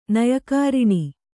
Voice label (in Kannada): "ನಯಕಾರಿಣಿ", Kannada; nayakāriṇi; noun